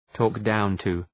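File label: "talk down to", English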